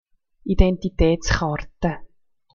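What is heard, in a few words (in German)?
"intentitaetskarte", Swiss German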